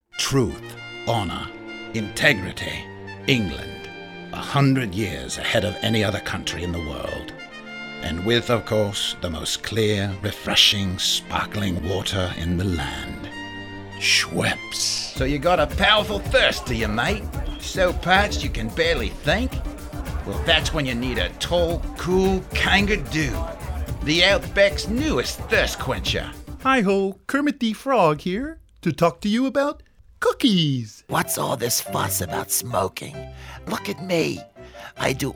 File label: character promos